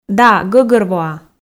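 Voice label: "да гӧгӧрвоа", Komi, female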